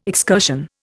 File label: "excursion", Indian